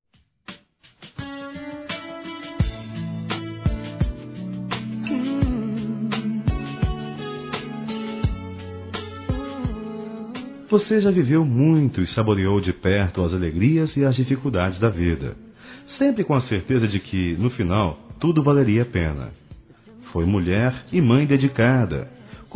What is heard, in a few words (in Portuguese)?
PARAB. PELO NETINHO(A) P-A AVÓ, Telemensagens; Parabenização